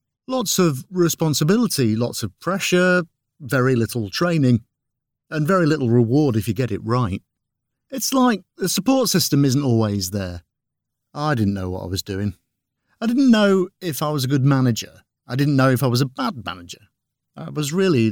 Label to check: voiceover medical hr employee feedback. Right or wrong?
Right